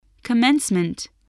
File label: "commencement", voice